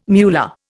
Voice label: "muller", Indian